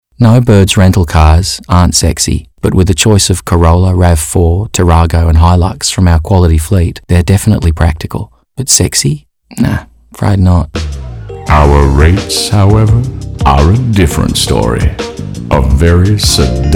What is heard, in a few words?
Nerdy Young Australian; Car Rental; Voice Overs Commercials; No Birds Car Rental; Barry White type voice over